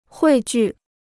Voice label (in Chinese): "汇聚", Chinese